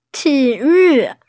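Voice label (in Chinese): "ti3yu4", Mandarin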